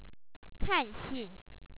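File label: "ka4nxi4n", Chinese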